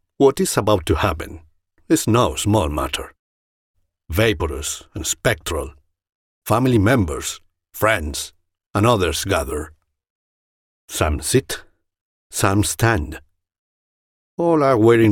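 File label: European Spanish accented English; GoLocalise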